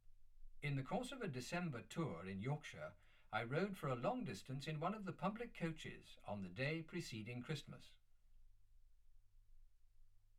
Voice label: male speech english